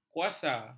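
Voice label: "kuasa", Malay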